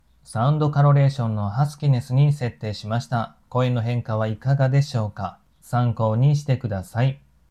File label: maono wireless microphone